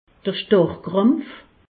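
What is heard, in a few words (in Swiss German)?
"starrkrampf", Alsatian; medecine; tétanos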